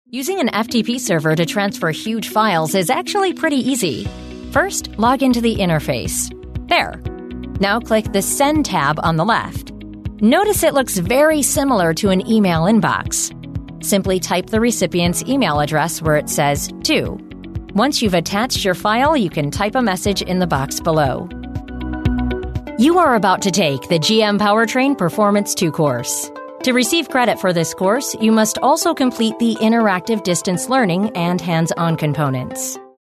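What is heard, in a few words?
English, American, female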